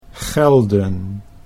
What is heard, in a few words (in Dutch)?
"gelden", Dutch